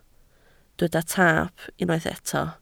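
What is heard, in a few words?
"taap" Welsh, spoken word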